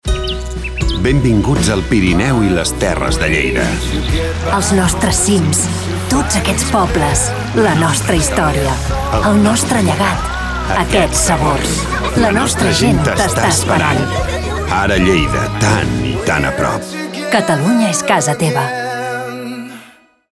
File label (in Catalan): falca radio, CAT; TURISME; LLEIDA